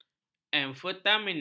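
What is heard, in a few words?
"amfetamina", Malay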